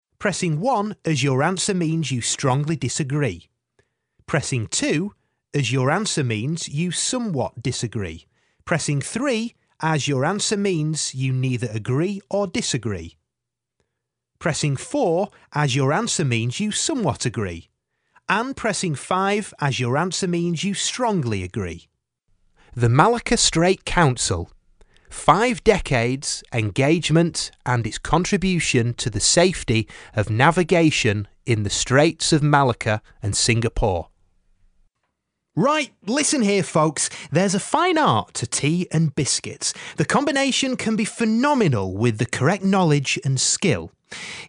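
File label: English, male; lektoring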